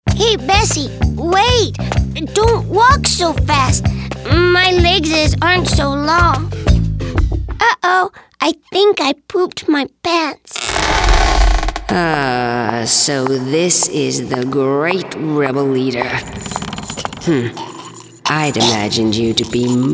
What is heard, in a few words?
animation